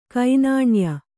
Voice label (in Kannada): "ಕೆನ್ನಾಯಿ", Kannada